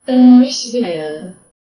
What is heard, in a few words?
low female artificial voi, alerts